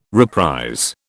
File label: "reprise", British